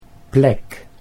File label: "plek", Dutch